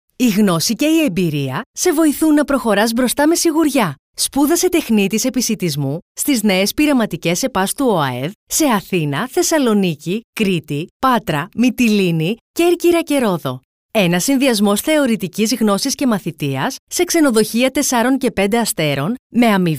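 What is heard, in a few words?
Greek, female, elearning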